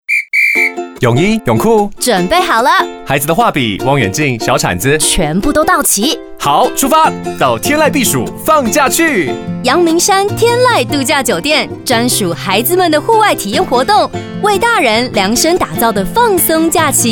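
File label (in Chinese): 溫暖輕鬆, 男性配音員; 陽明山天籟; 出發篇B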